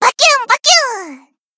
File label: Battle Shout; Koyuki